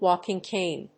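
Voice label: "walking cane", English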